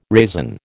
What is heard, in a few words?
"raisin", American